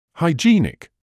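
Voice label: "hygienic", British English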